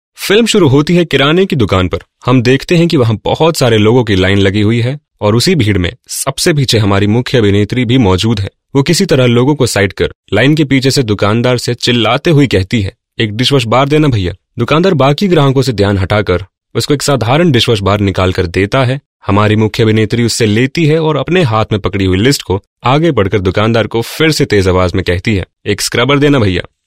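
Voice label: Narrator Hindi, male voice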